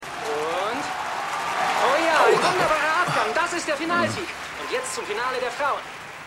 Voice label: TV Geraeteturnenkommentator, Stimmproben; Was Frauen wollen

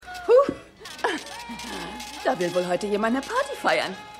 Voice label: Kassiererin; Liebe Braucht Keine Ferien

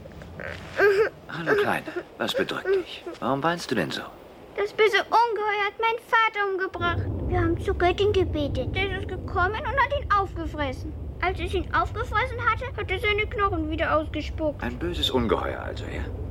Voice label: Maedchen; Hercules; Hydra

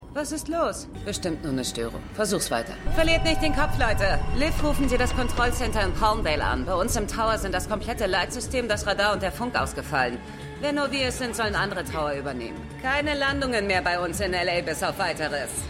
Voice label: Fluglotsin; Stimmproben; 911

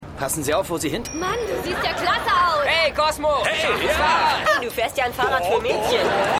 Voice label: Mann Frau Mann Junge; Seinfeld

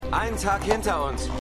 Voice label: Mann Kutsche; Revolution

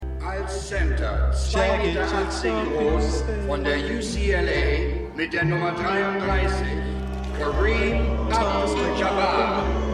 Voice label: Stadionsprecher; Winning Time

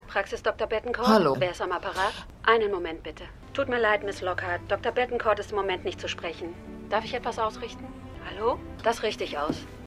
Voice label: Rezeptionistin, Stimmproben; The Good Fight

